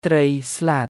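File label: "ត្រី ស្លាត", Khmer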